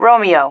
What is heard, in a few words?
"romeo", female